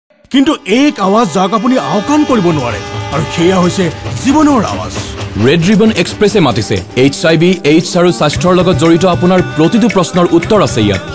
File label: Radio Assamese